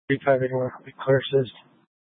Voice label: Police Dispatch; Police 1 Dispatch

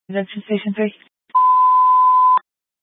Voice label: Fire Department Dispatch; Grand Junction

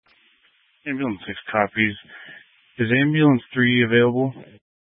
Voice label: Grand Junction Fire Department Dispatch

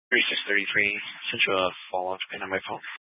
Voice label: Police Dispatch, scanner; Police 1 Dispatch

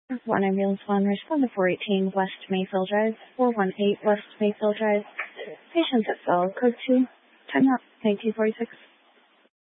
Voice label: Grand Junction Fire Department Dispatch

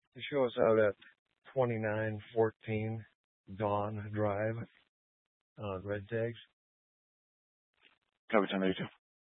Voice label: Sheriff Dispatch; Mesa County

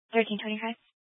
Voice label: Fire Dispatch, scanner

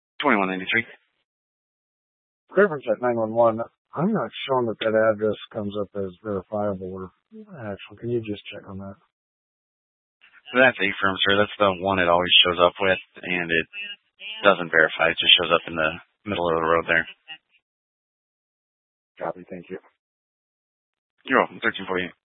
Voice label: Mesa County Sheriff Dispatch

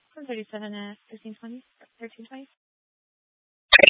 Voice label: Law Enforcement Channel

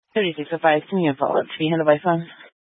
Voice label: Police Dispatch, scanner; Police 1 Dispatch

